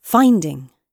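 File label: "finding", British English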